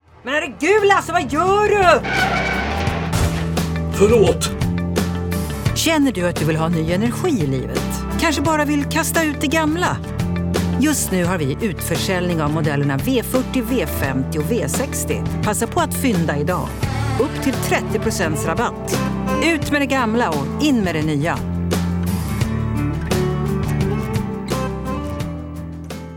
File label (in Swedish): rostprov kvinnlig energisk reklam